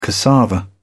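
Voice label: "cassava", British English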